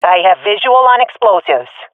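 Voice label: sees dropped bomb, swat female; vo; Counter Strike Model Pack; CS:GO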